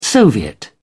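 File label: "soviet", English, British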